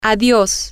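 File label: Spanish, female; goodbye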